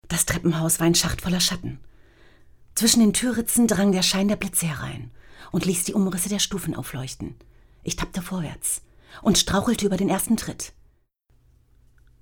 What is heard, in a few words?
female german audio trailer; ideasonora